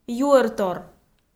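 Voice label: "юӧртор", Komi, female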